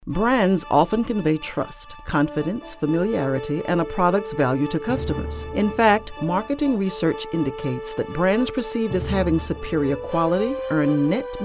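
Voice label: Narration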